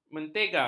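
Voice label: "mentega", Malay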